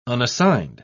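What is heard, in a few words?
"unassigned", British English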